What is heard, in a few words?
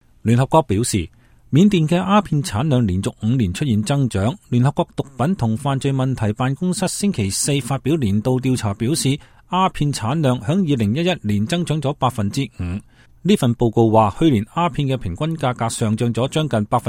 cantonese; Burma